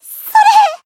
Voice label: Voice attack jp